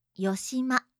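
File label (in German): "yoshima", japanische Mädchennamen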